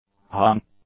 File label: "hung", English; adjectives